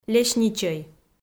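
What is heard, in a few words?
"лесничӧй", Komi, female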